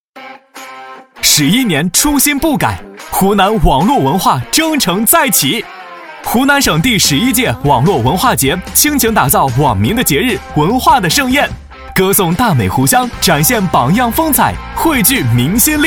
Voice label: 男 专题 年轻; 湖南省第十一届网络文化节活动总结片

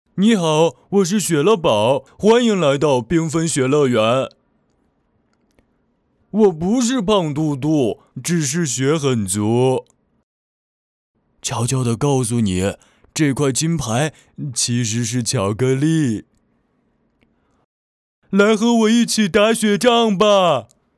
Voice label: 男 自走棋手游 可爱憨厚少年; 雪乐宝

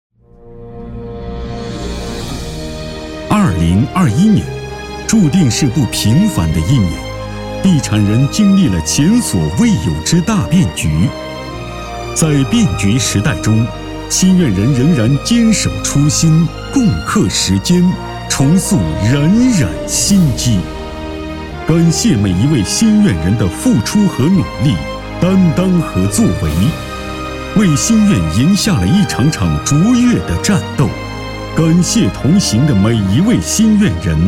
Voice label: 男 颁奖样

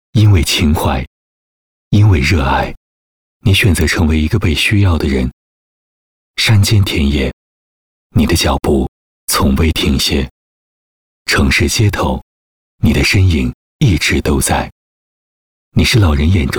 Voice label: 男 公益广告旁白配音

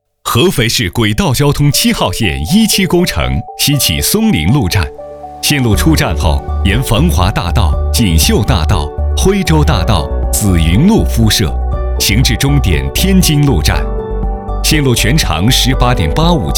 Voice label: 男 工程介绍

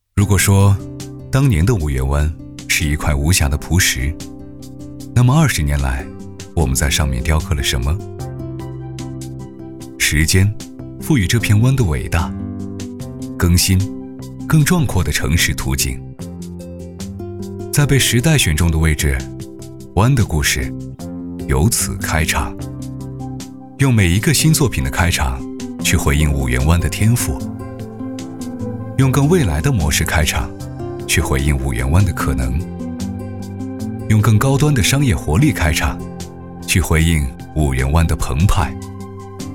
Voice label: 男 温情广告

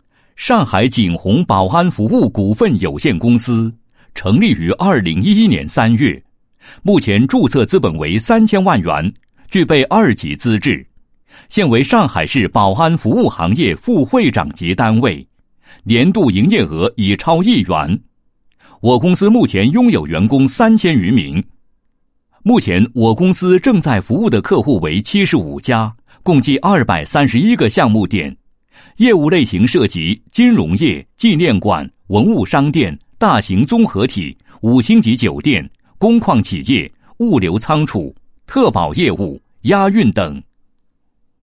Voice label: 男 解说词; 外滩金融中心答标宣传片解说词

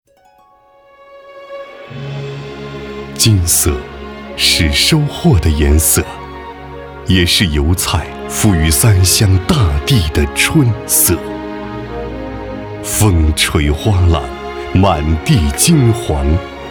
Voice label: 男 汇报片 稳重大气; 花开湖湘溢芬芳

